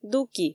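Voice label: "дуки", Komi, female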